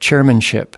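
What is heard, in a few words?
"chairmanship", US English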